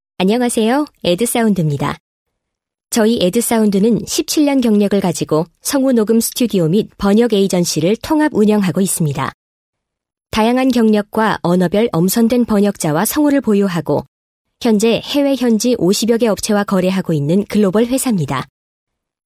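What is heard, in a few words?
Korean female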